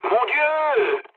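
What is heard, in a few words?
French trick mood low; War Thunder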